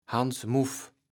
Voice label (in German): "Hans Muff", Platt